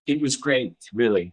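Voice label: Voice Acting es M, Spanish, male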